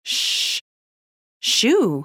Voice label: babys sound audio SHOE